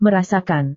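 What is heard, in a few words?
"merasakan", Indonesian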